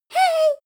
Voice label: girl "hey"